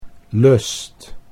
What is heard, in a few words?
"lust", Dutch